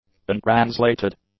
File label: "untranslated", english; adjectives